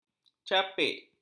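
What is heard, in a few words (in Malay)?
"capik", Malay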